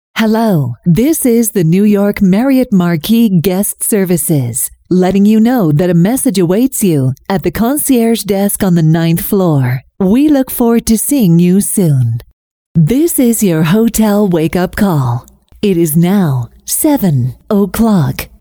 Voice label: US English IVR Hotel